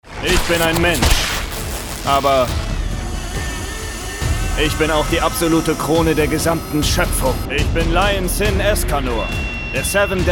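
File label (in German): Anime übermenschliche Vorstellung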